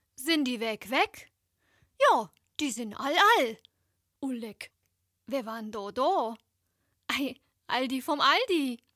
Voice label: all die vom aldi, dialekt; Muttersprache Deutsch

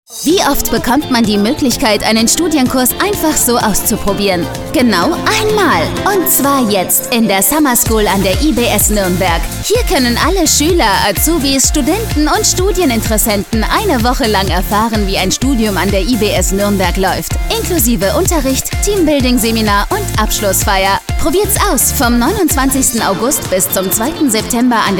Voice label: funkspot; ibs summerschool

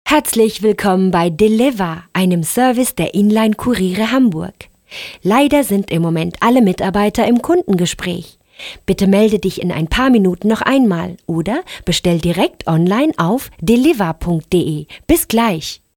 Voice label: Telefonansage; Sprecher in Frankfurt a. M.

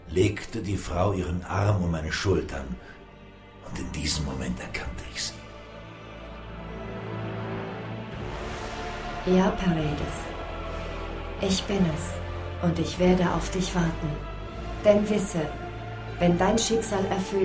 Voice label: hoerspielproduktion; pereides schicksal

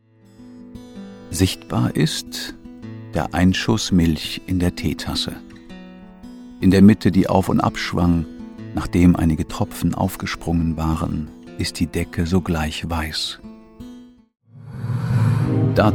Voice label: hoerbuch

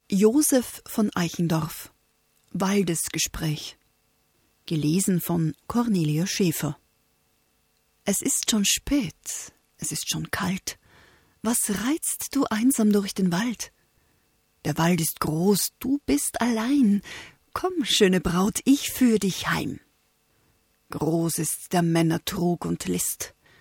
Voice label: tonprobe lyrik; waldesgespraech

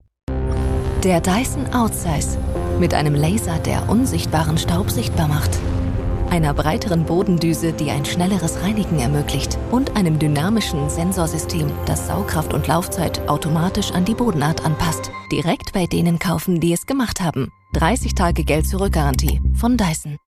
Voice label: tv werbung; dyson outsize

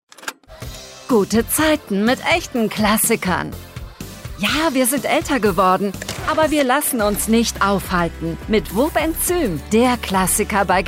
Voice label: TV Spot dynamisch frisch; wobenzym

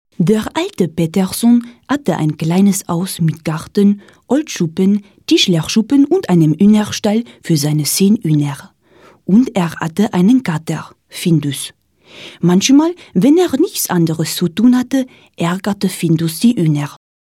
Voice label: franz akzen

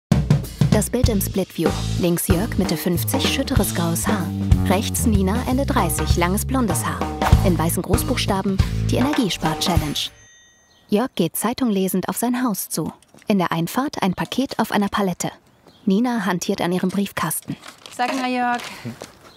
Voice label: audiodeskription

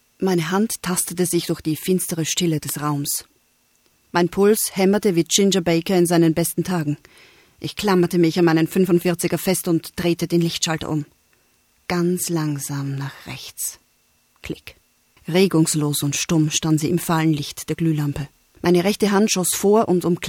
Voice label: werbung pils

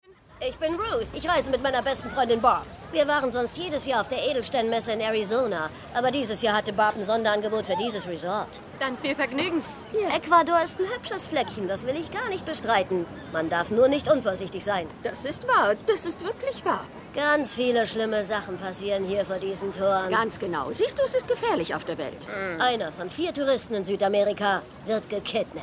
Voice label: synchron

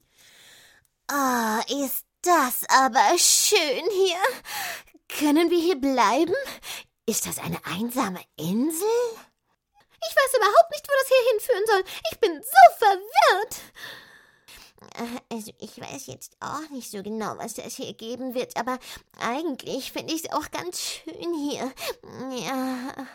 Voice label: trickstimmen

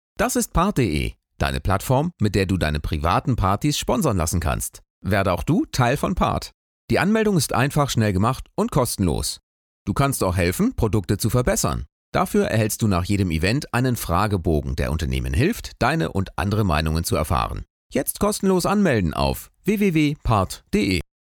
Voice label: stimmprobe imagefilm

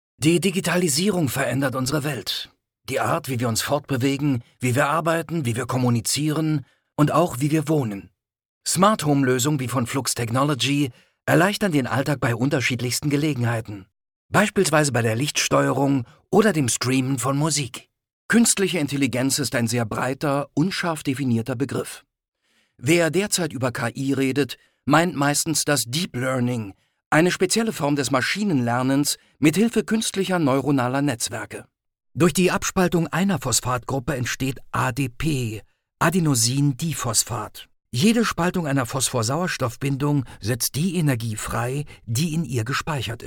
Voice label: erklar mix wissenschaftlich

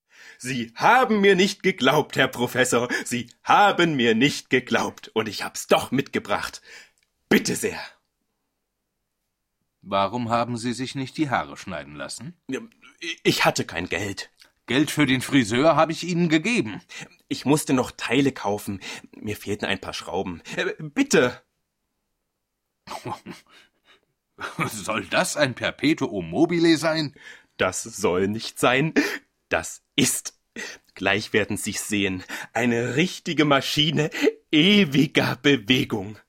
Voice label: lem hoerspiel

